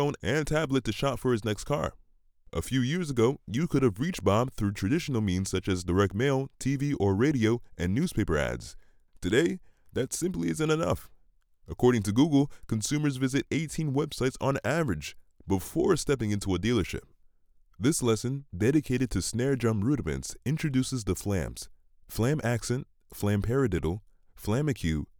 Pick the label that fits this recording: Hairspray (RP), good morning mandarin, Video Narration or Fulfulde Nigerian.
Video Narration